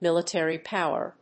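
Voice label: "Military power", English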